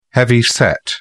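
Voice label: "heavyset", British English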